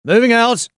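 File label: Voices Units Allied Rifleman move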